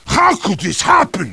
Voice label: heavy jeers; tf2